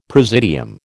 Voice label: "presidium", American

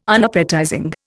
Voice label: "Unappetizing", Indian

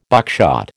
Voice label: "buckshot", American

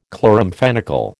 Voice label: "chloramphenicol", American

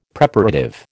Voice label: "Preparative", American

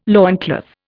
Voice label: "loincloth", Indian

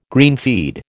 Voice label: "greenfeed", American

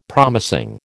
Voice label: "promising", American

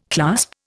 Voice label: "clasp", Indian

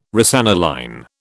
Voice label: "rosaniline", British

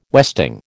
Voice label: "westing", American

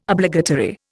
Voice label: "Obligatory", Indian

